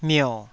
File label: "mio3", taiwanese